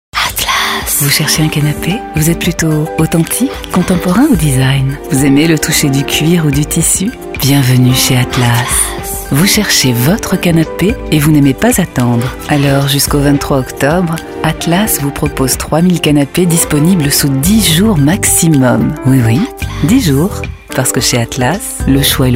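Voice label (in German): französische Sprecherin; french voice-over talent female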